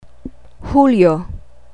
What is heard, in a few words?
"hulyo", Tagalog